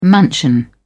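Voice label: "mansion", English, British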